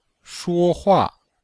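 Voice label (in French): shuo hua, Chinese, Vocabulaire et phonétique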